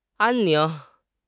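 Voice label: "安宁", Chinese; HSK6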